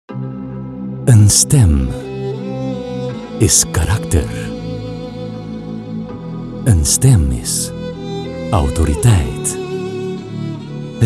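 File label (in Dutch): Dutch, General; GoLocalise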